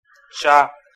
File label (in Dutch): "ଚା", Odia (Oriya); thee-in-andere-talen